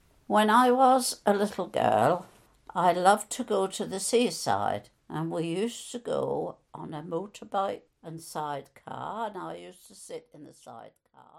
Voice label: NORWICH FEMALE

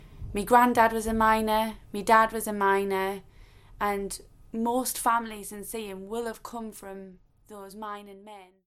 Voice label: COUNTY DURHAM SEAHAM FEMALE

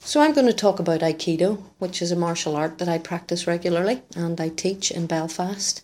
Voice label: BELFAST FEMALE

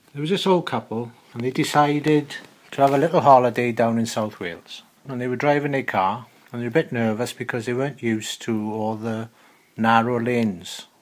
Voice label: SWANSEA CITY MALE

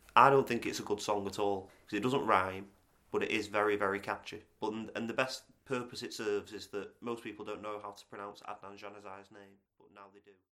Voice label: MANCHESTER MALE

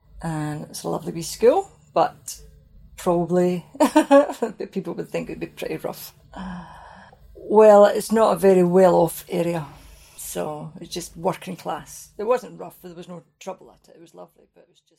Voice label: SCOTLAND GLASGOW SOUTH SIDE FEMALE aged 55

